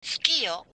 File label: i love you, japanese